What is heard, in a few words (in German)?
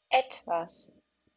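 "etwas", German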